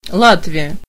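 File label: "latvia", Russian; gcompris; geography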